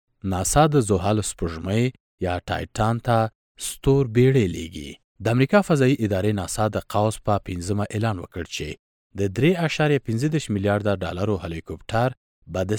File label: male pashto voice over talent narrative, afghanistan